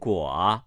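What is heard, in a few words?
"guo", Chinese, tone 3, male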